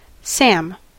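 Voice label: "Sam", US English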